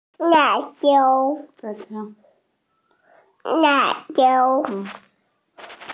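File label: "chili pepper", Mandarin, hearing-impaired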